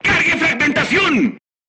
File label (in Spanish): voice message load Frag, Spanish